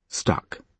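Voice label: "stuck" adjective, British English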